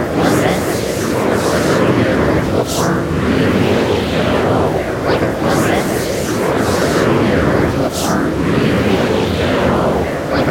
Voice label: male voice wobble